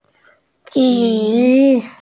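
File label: "ju3", Mandarin Chinese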